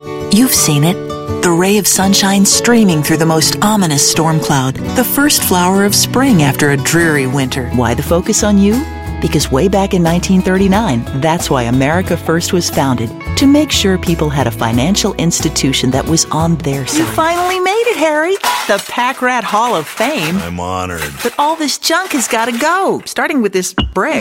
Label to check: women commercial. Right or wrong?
Right